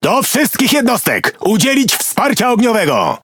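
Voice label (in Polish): Speech Quick Commands Enemy Ship, Polish; World Of Warships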